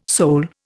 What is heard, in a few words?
"soul", Indian